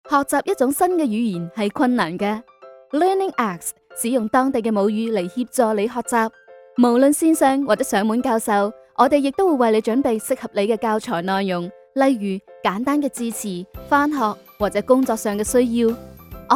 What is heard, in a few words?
chinese cantonese e-learning